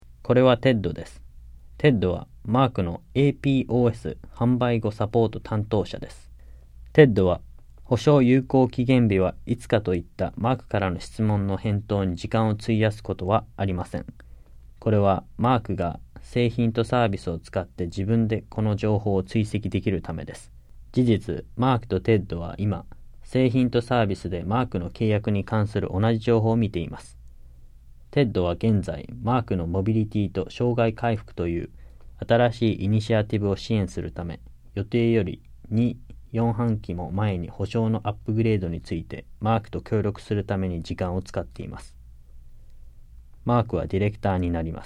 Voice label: JAPANESE; delltranslations